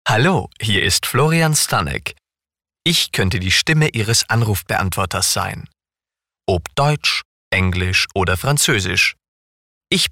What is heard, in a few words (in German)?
Sprecher Telefonansagen